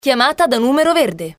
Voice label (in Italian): annuncio